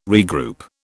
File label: "regroup", British